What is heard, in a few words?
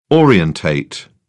"orientate", British; AmericanBritish